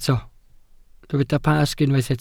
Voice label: "Pasg", Welsh